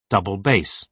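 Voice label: "double bass", British English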